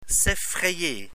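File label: "s effrayer", French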